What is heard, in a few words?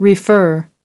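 "refer", American English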